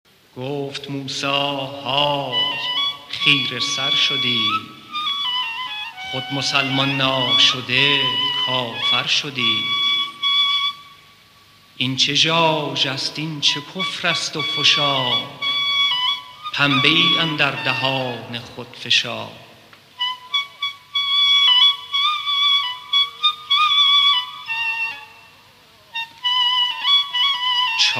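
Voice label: declamation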